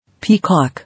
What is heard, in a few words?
"Peacock", English